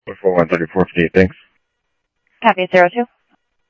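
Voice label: Mesa Police Department, scanner audio; Mesa Simulcast; MESA PD Superstn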